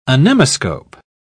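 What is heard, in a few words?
"anemoscope", British English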